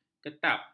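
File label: "ketap", Malay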